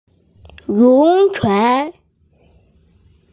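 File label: "lun chuan" Chinese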